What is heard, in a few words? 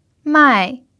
"mai4", Mandarin pinyin